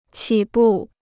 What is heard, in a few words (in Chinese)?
"起步", Chinese